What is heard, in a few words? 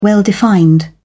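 "well-defined", British English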